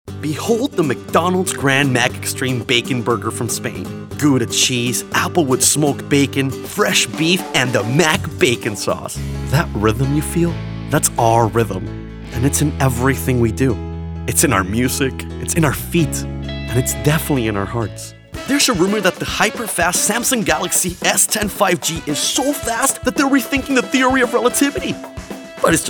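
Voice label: VO English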